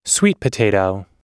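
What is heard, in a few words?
"sweet potato", American English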